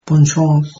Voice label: good luck, mauritian creole